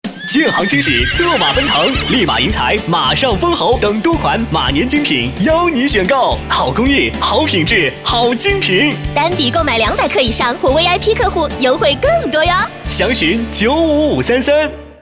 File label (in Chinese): 广告 银行 贵金属 活力; 建行贵金属